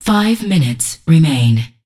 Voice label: Voices Announcer Status; unreal development kit